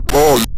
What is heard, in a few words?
"pause", Synth Voice; Kenney's Sound Pack; Synth Voice 1